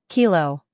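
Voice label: "Kilo", American English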